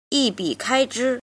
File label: "jin", Chinese